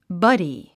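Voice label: "buddy", English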